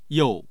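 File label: "you4", Mandarin Chinese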